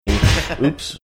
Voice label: accidentally kicking his chair saying oops